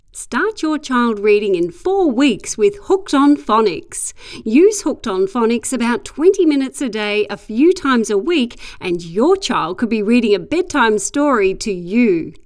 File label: Upbeat